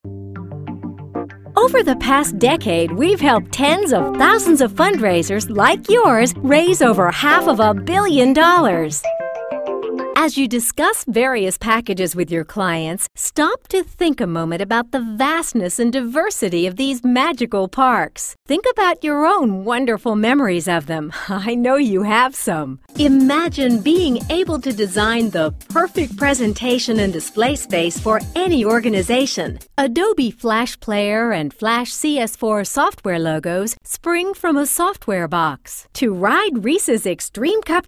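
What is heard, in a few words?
corporate, female voice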